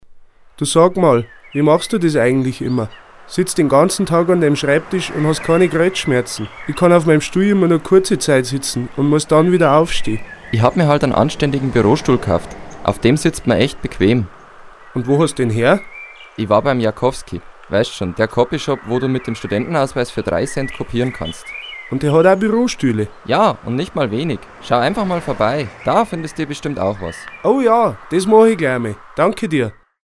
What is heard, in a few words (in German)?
Werbung